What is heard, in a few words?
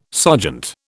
"sergeant", British